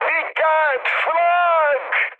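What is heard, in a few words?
damaged aa, mood high, Turkish; speech; War Thunder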